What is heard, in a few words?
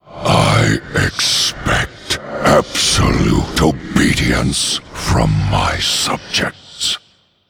Male Undead Bark; Arthas